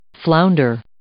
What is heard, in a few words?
"flounder", English